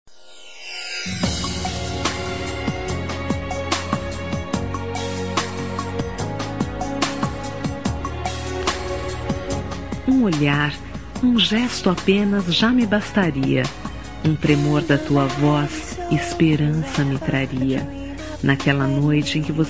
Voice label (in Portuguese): Romântica Com Reconciliação